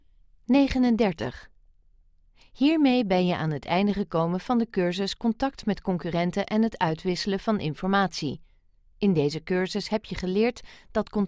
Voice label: Dutch E Learning

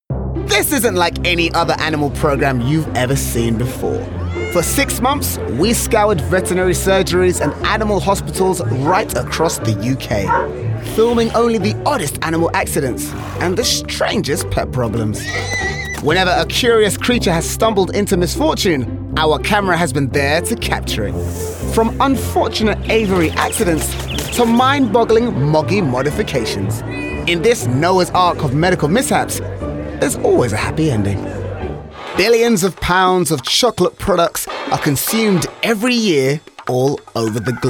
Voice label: Narration

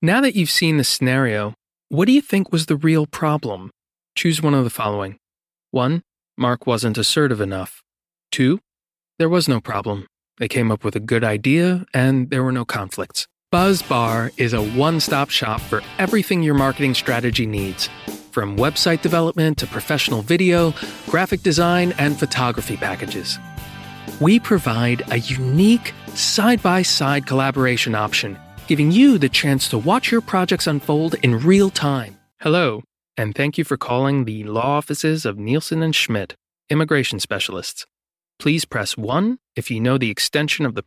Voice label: Corporate

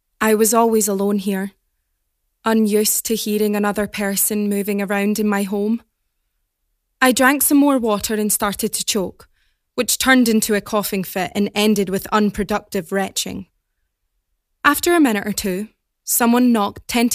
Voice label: AudioBook

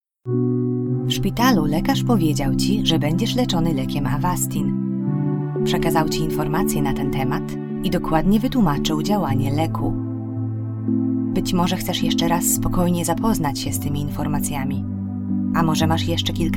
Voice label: Narrative Polish

